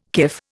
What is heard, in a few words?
"kif", Indian